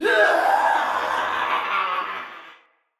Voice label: Battle Cry, Male, en; Barks; Dialog; Sinecure Banneret